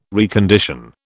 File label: "recondition", British